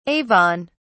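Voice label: "Avon", British English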